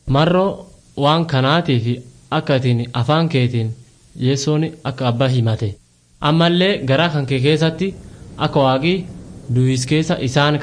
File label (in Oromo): borana gabbra; words of life